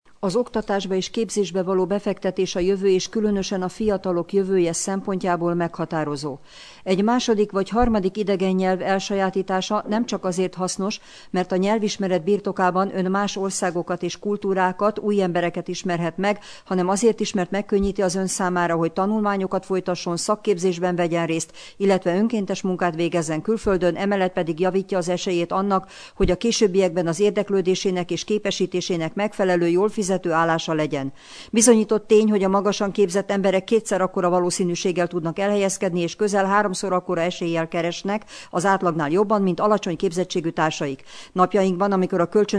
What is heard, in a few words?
1-minute trial text HU